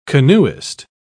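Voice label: "canoeist", British English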